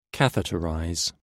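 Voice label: "catheterize", British English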